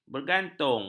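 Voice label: "bergantung", Malay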